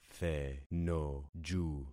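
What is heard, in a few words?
"thenoju", concatenated syllables; Affixing experiment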